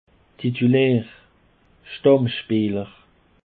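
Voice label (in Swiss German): "titulaer", Alsatian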